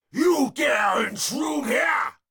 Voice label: Unarmed Elite Alert Line, dialogue; NPC; Heist Cultist Spotted